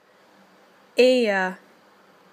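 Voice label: "ear", British English; PictureDictionary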